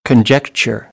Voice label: "conjecture", American; English; ɚ - əɹ

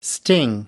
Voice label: "sting", English

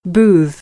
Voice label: "booth", American; AmericanBritish

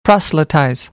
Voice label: "proselytize", American English

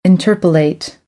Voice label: "interpolate", American; ɝː - ɜː

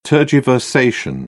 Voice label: "tergiversation", British English; ɝː - ɜː

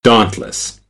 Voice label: "dauntless", American; ɑː - ɔː

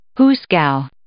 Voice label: "hoosegow", English

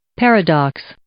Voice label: "paradox", English

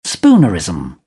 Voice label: "spoonerism", British; ɚ - əɹ; English

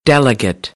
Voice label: "delegate", American; ə - ɪ

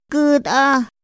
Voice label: "küt´a", Otomí; Días de la semana; INPI; INALI

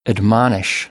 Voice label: "admonish", American English; ɑː - ɒ

